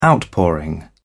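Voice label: "outpouring", British English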